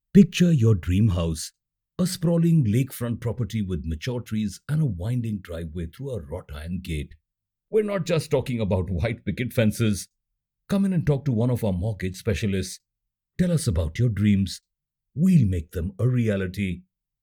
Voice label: english indian

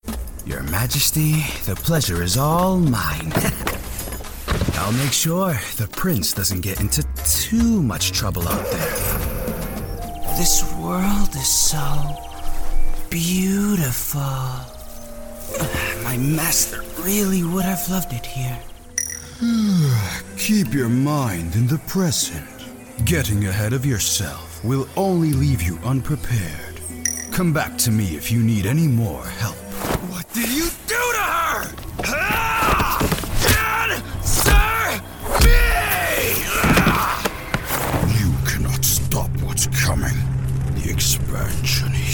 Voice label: english american video games

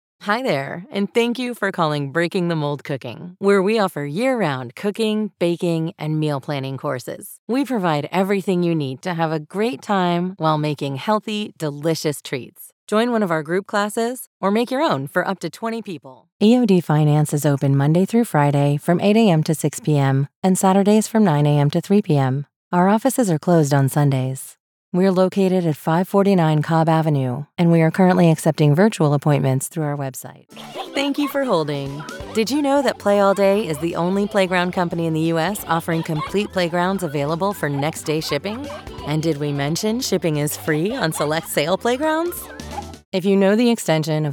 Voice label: english american ivr

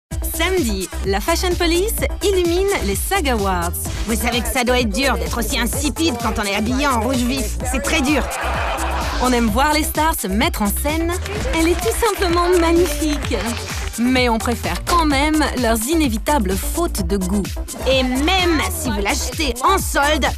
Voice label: french promos

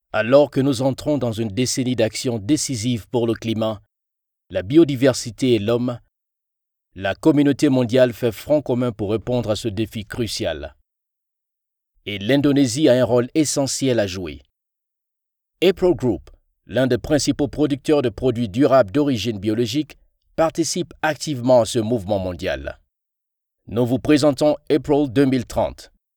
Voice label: french commercial

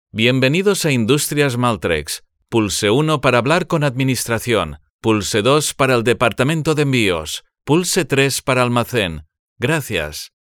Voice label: spanish ivr

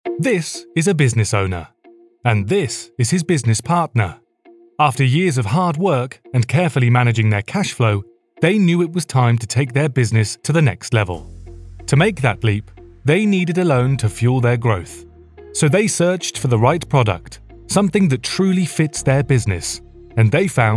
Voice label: english british explainer videos

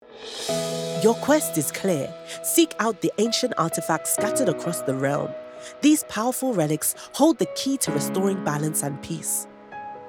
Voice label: english african animation

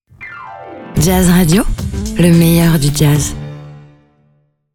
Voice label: french radio imaging, voice actors

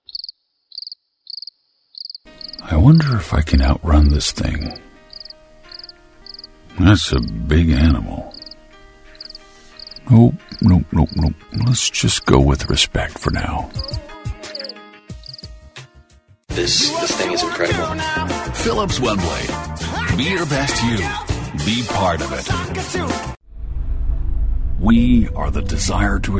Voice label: english canadian commercial

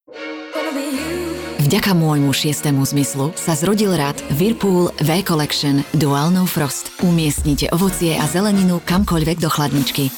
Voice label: slovak promos

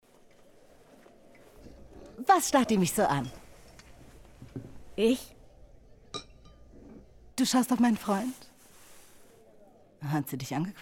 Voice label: german animation

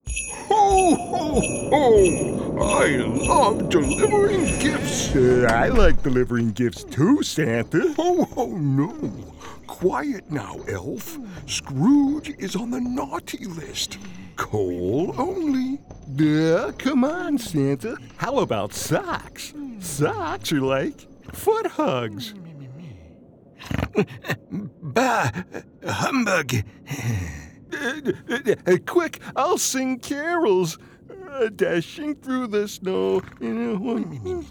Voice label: english american others

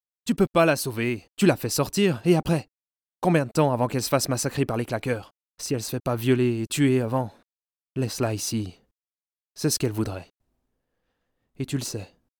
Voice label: french video games

